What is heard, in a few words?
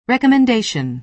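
"recommendation", American English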